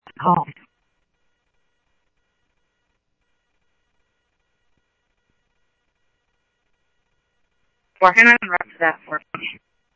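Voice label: Simulcast police department, scanner audio trunk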